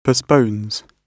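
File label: "postpones", British English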